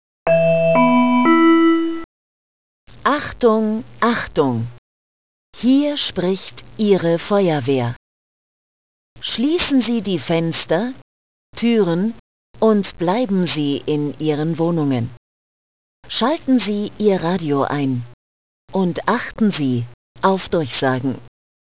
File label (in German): alarm Durchsage Gefahr; sirenen